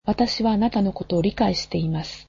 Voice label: japanese I understand you